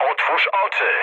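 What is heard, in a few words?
player friendly fire, mood low, Polish speech; War Thunder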